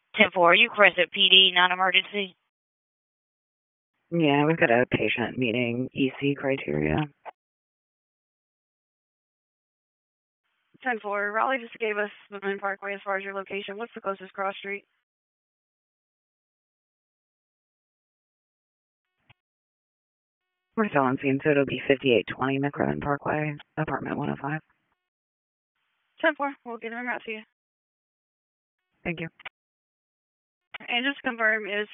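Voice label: Public Safety Tactical, p25; wake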